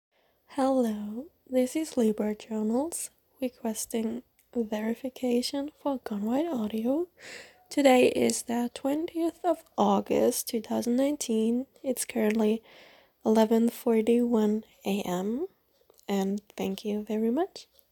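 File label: Female Verification; Verification for GWA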